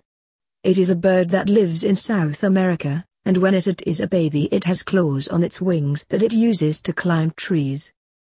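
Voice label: chicken dinos, British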